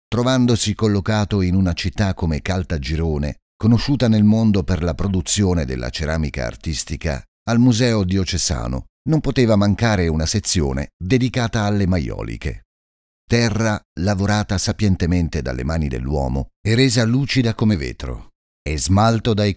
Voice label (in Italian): audioguide italiano; maioliche